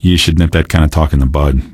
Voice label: answer, male; Garry's Mod; hl2

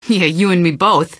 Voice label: answer, female; Garry's Mod; hl2

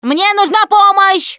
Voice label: ledge hang start, russian; Producer

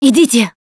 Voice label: Emphatic Go Quiet, russian; Producer

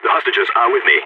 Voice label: guarding hostages, balkan; Counter-Strike Global Offensive

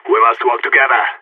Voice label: radio lock n load; player vo phoenix

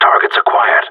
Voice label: radio enemy spotted; player vo gsg9

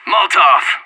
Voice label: molotov, player vo anarchist; Counter-Strike Global Offensive

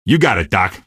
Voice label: squad affirm, male; npc; Garry's Mod; hl2

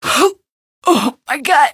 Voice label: my gut, female; Garry's Mod; hl2

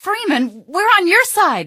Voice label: on your side, female; Garry's Mod; hl2

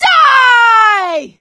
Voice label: battle cry, survivor voice; Left 4 Dead 2; producer

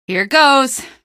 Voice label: squad affirm, female; npc; Garry's Mod; hl2

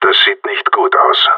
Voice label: scared emote; player vo gsg9

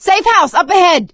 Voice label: safe spot ahead, survivor voice; Left 4 Dead 2; producer

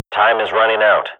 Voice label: radio bot time, Counter-Strike swat; Global Offensive; player vo

